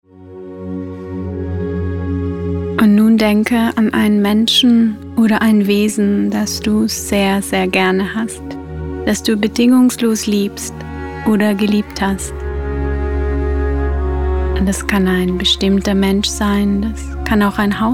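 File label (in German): Liebende Güte Meditation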